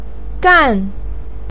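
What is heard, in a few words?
"gan" fourth tone, chinese, voice pinyin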